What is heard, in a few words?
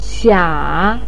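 "xia", Mandarin Chinese syllable, third tone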